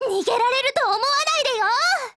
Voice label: SKILL, JP